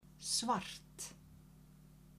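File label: "svart", Icelandic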